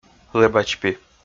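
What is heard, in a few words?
"лэбачпи" Komi, male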